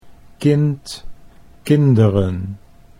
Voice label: "kind kinderen", Dutch